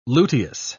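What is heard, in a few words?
"luteous", British English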